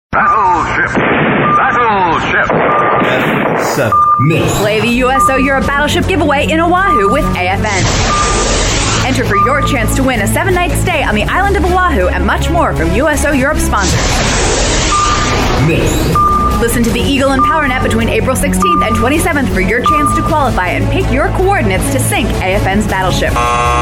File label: promo